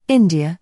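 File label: "i", British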